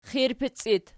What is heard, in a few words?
"qirpic", Archi